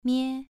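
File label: "mie", tone 2, pronunciation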